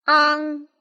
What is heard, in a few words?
"ang", pinyin